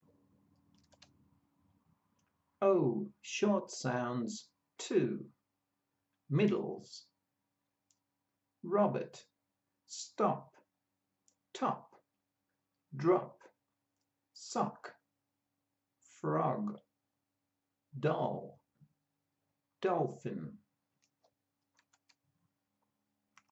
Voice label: O short sounds, pronunciation of australian english